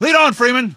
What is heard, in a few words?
"lead on", male; npc; Garry's Mod; hl2